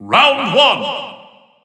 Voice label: Narration Round 1; Smash Wii U